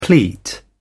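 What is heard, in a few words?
"pleat", British English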